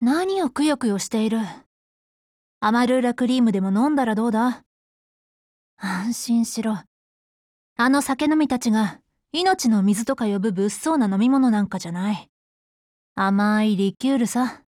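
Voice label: DIALOGUE Japanese; NTW20Mod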